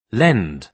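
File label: "lend", British English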